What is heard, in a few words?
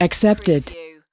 "accepted", US female; lynne publishing